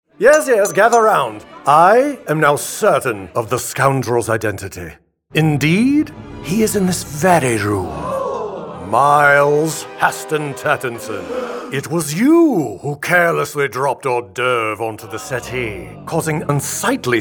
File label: Animation; Bernard